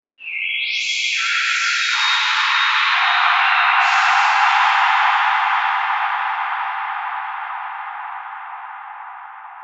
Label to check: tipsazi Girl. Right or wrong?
Wrong